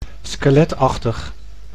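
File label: "skeletachtig", Dutch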